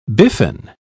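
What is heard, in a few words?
"biffin", English, British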